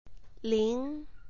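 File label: "ling", Mandarin Chinese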